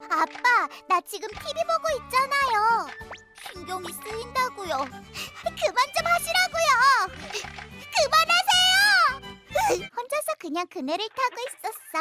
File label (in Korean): 순수한 착한 귀여운 소심한 9살